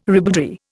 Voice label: "ribaldry", Indian

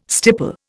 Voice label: "stipple", Indian

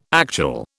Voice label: "actual", British

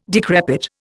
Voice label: "decrepit", Indian

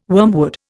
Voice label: "wormwood", Indian

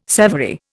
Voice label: "severy", Indian

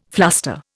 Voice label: "fluster", Indian

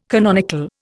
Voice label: "canonical", Indian

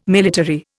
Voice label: "military", Indian

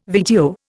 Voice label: "VTO", Indian

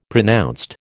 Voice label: "pronounced", American

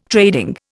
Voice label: "trading", Indian

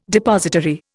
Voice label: "depository", Indian

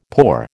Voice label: "pour", American

